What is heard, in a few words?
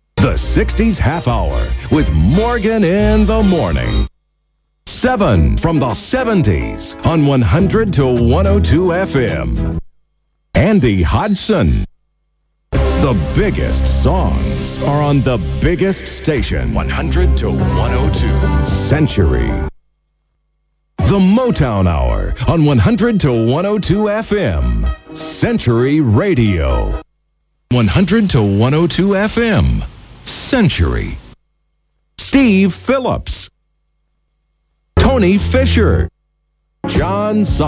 US vo liners; A montage of the US voice-over liners that didn't last very long